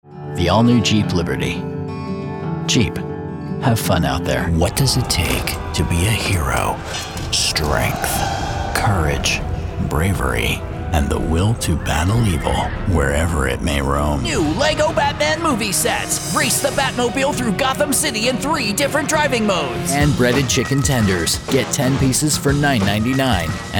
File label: Male Voice Over, Commercial